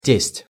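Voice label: "test", Russian pronunciation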